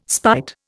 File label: "spite", Indian